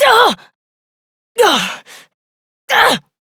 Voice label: Struggle Long Adult; New War; Operator